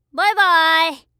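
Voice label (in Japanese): "ばいばい" Japanese; 挨拶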